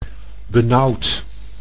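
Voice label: "benauwd", Dutch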